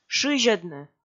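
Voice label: "шызьӧдны", Komi, female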